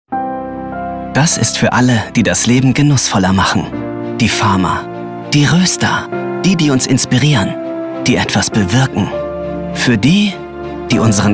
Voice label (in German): Sprachprobe Onlinespot; Nescafe; LOFT VOICES